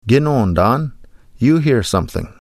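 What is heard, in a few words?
"Gnoondaan", Ojibwe; You hear something; Nanabush Looses The Meat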